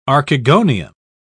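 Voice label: "archegonium", English, British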